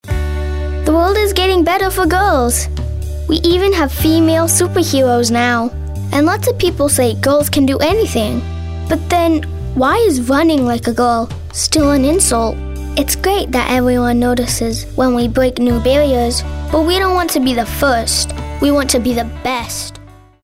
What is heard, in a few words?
thoughtful